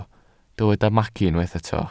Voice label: "magu", Welsh

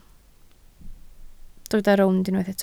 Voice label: "rownd", Welsh

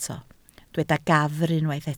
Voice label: "gafr", Welsh

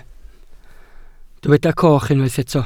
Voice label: "coch", Welsh

